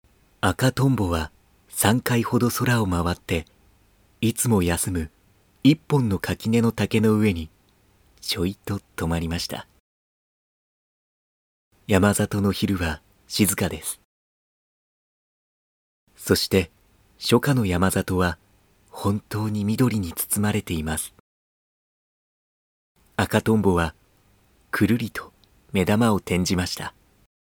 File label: male